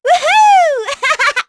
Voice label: Vox Happy; kingsraid; en